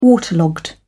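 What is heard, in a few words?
"waterlogged", British English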